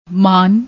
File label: "maan", Punjabi